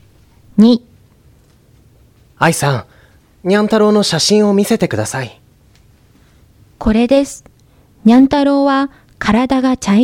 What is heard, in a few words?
Conversation; tobira